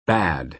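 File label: "bad", American English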